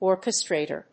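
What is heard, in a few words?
"Orchestrator", English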